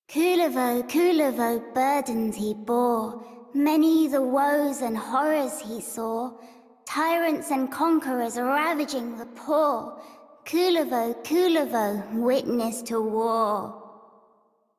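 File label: Children's Rhymes Child Female; Warframe; Duviri; Kullervo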